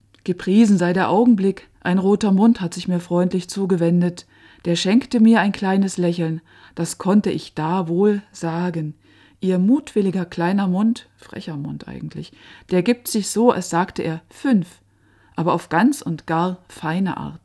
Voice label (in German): hochdeutsch; Heinrich Hetzbold von Weissensee; Wol mich der stunde